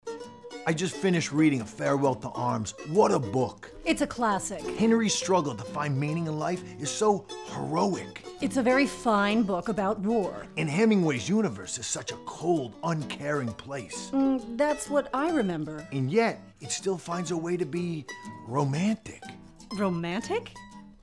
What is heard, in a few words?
radio